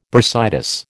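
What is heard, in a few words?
"bursitis", American English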